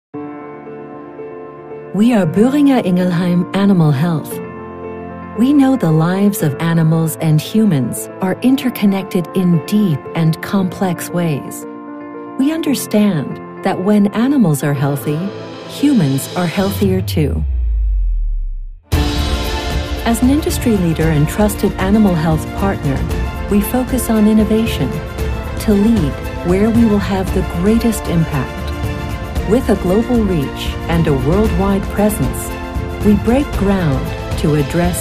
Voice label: corporate imagefilm; Sprecher in New York; Muttersprache Englisch, Englisch/UK, Englisch/US-Amerikanisch